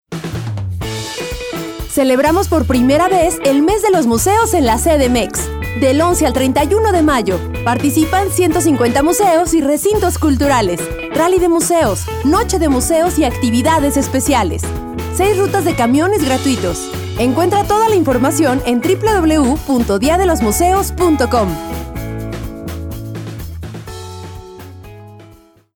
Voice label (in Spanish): spot promocional; spots publicitarios; mes museos cdmx